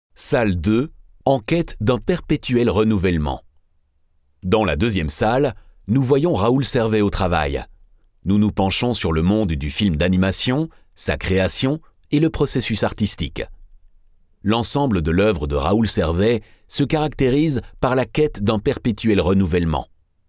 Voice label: Audioguide; Expo Raoul Servais